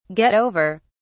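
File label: "get over", British English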